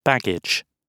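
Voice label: "baggage", British English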